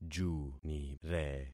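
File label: "junire", concatenated syllables; Affixing experiment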